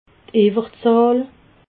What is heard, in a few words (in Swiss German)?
"iwwerzahl", alsace